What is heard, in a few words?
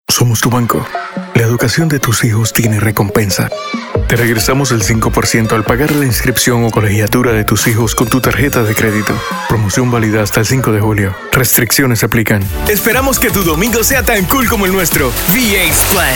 VO Commercial